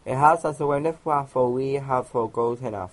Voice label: cheers, Cornish